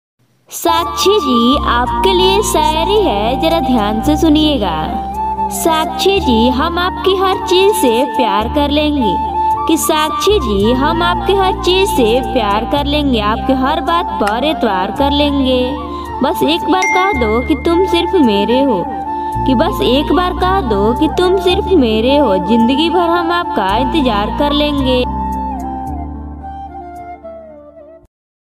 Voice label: sakshi naam ki ringtone, sakshi name shayari